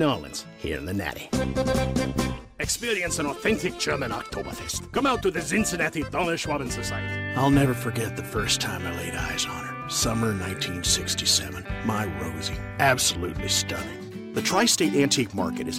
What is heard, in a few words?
COMMERCIAL; radio commercial work; Triumph of Cincinnati